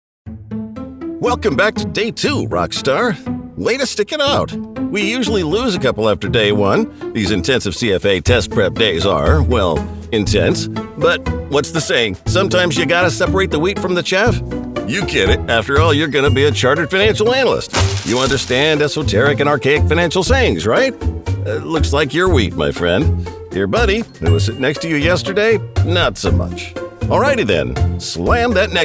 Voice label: eLearning